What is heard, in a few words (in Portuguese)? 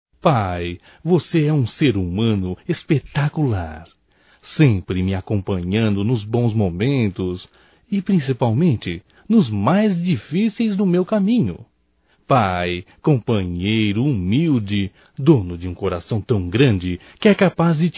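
Agradecimento para pai male, Só Voz; Telemensagens; AGRADECIMENTOS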